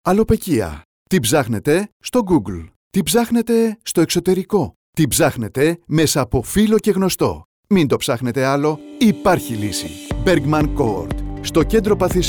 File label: radio spots; athens